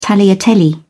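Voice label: "tagliatelle", British English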